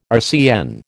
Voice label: "RCN", American